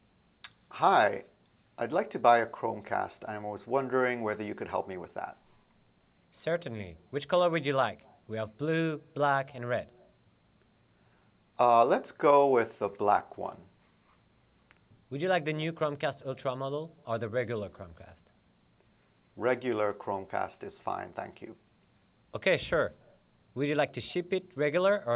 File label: commercial mono, speech